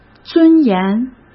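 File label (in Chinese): "尊严", Mandarin Chinese; hsk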